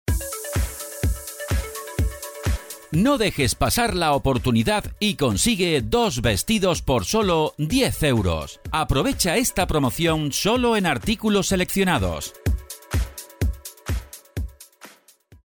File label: ESP; HUMANA